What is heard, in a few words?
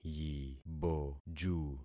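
"yiboju", concatenated syllables; Affixing experiment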